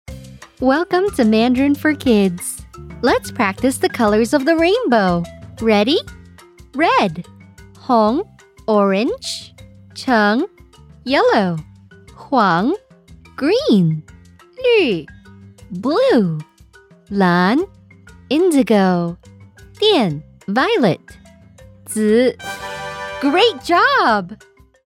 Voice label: Kids eLearning Mandarin; Rainbow